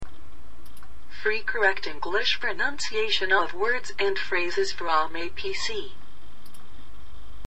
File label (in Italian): pronuncia inglese parole frasi dal pc